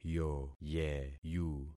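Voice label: "yoyeyu", concatenated syllables; Affixing experiment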